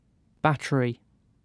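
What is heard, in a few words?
"battery", British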